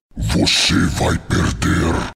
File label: voice, Brazilian Portuguese; Malphite; League of Legends